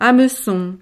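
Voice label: "hamecon", French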